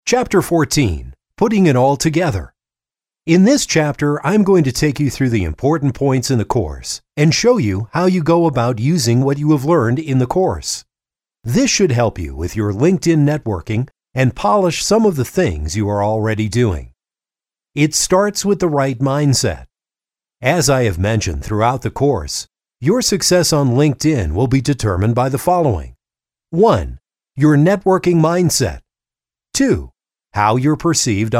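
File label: audiobook, male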